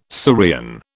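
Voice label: "Syrian", British English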